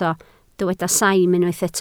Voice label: "saim", Welsh

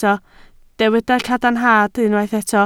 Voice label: "cadarnhad" Welsh

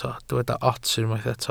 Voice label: "ots", Welsh

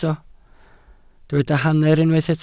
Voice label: "hanner", Welsh

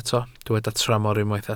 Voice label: "tramor", Welsh

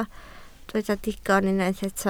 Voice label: "digon" Welsh

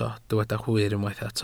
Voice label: "hwyr", Welsh

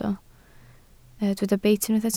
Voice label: "beit", Welsh

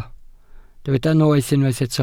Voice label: "noeth" Welsh

